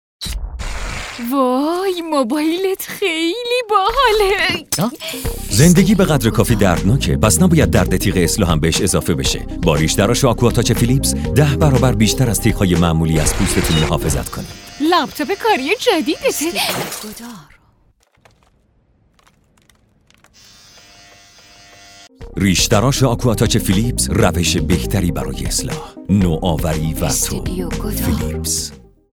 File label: commercial